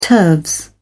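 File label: "turves", British English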